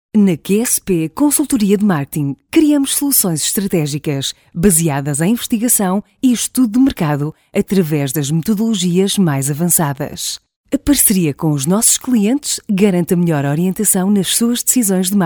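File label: Portuguese, female, narration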